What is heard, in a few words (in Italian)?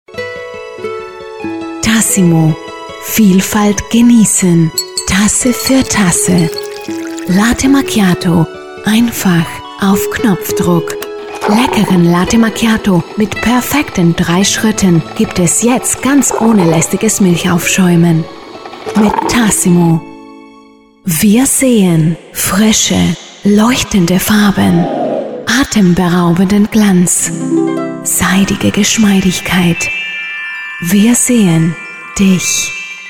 tedesco impostata